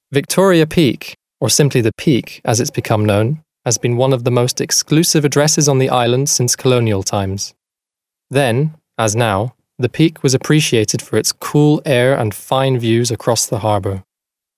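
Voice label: British male corporate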